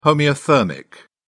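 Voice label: "homeothermic", British English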